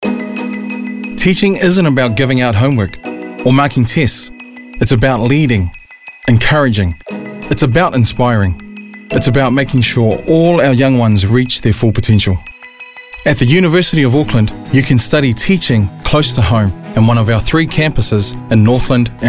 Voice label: Voice Actor